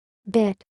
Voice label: "bit", English